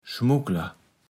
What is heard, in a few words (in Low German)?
"Schmuckler", platt